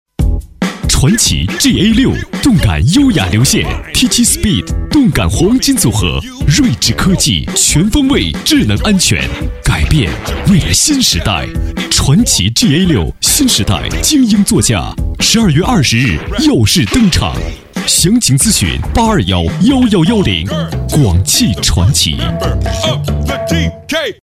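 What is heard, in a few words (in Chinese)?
男 广告（活力向上）; 广汽传祺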